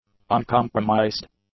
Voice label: "uncompromised", English; adjectives

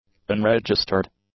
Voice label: "unregistered", english; adjectives

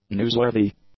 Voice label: "newsworthy", english; adjectives

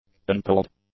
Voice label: "unpolled", english; adjectives

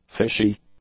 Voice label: "fishy", english; adjectives

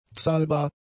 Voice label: "zahlbar", German; adjectives

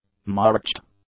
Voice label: "marched", English; adjectives

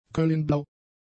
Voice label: "coelinblau", German; adjectives

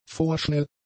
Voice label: "vorschnell", German; adjectives

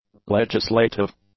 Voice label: "legislative", english; adjectives

